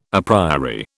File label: "a priori", British